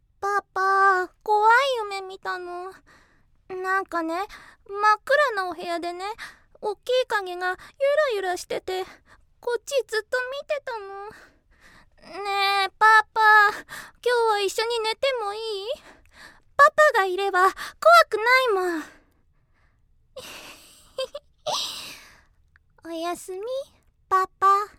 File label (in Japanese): 怖がりな幼い女の子